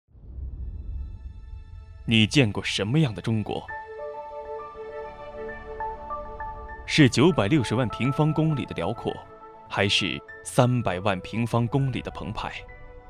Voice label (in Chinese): 男 航拍中国 开篇